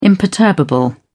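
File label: "imperturbable", British English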